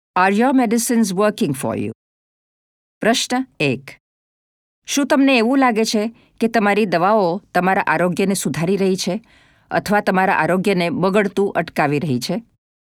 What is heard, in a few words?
GP Checklist Patient Gujarati